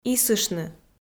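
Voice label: "исыштны" Komi, female; audio dict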